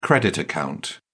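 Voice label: "credit account", British English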